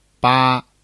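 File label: "bā", Chinese; 中文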